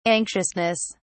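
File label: "anxiousness", British English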